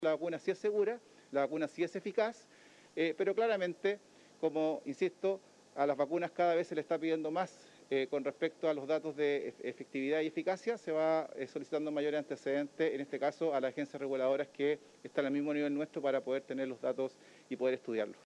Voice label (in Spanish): cuña